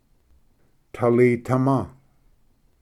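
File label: "talitama", Cherokee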